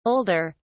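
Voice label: "older", British English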